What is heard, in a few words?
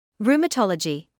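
"rheumatology", British English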